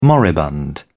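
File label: "moribund", British English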